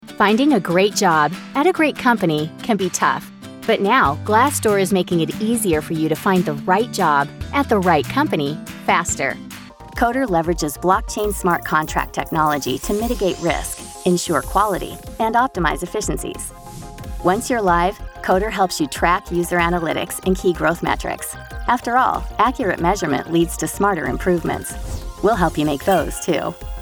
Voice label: women corporate; Easy-on-the-ears, authentic, and natural. Engaging, warm, and fun. Contemporary and ageless.